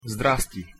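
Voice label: "sdrasti", Bulgarian pronunciation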